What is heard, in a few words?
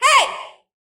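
Female "Hey"; Prison Architect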